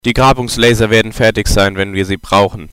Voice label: lasers, engineer; colony7